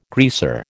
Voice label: "greaser", American

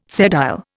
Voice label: "sedile", Indian

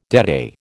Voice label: "deadeye", American

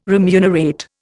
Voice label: "remunerate", Indian

